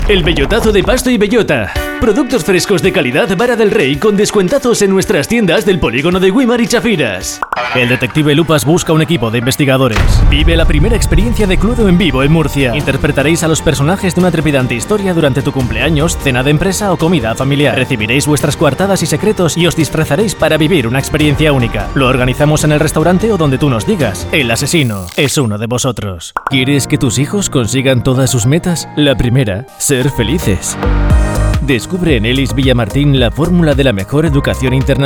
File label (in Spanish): espana, espanol espana